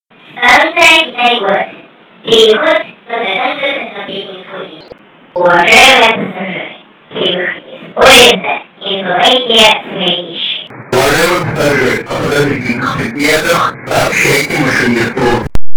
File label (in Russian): Старый информатор КРЛ женский голос станция Китай-город переход на ТКЛ